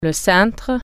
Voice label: hanger, french

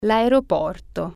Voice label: the airport, italian

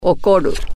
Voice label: get angry, japanese

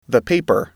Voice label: "paper", English

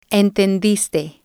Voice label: "entendiste", spanish

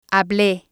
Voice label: "hable", spanish

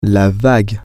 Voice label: "the wave", French

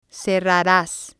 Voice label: "cerraras", spanish

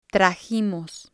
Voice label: "trajimos", Spanish

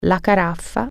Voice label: pitcher, italian

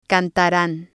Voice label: "cantaran", spanish

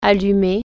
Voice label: "switch on", French

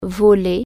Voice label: "fly", French

